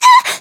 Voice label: Voice damaged; melody